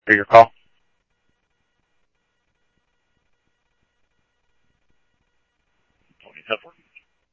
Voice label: PD Police, scanner audio; SCTSDLE PD - PD2 ScottsdalePolice; ThompsonPeakHigh

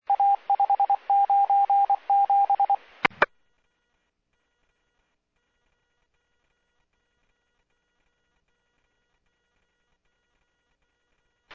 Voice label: Medevac, scanner; NativeAirAmb

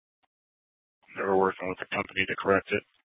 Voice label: PD Dispatch

